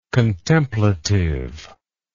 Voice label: "contemplative", English